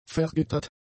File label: "vergittert", German; adjectives